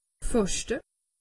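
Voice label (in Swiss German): "faschte", Alsatian; medecine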